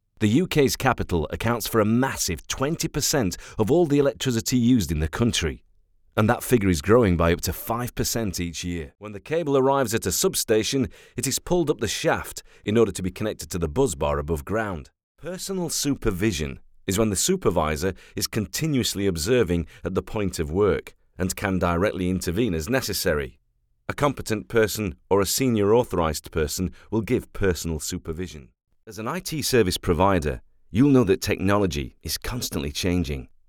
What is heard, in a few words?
Corporate; Voiceovers UK; Corporate Showreel